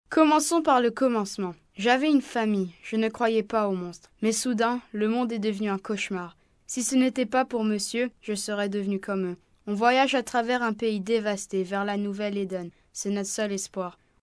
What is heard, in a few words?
FRENCH boy, children